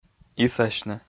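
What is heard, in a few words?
"исасьны", Komi, male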